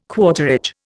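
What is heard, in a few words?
"quarterage", Indian English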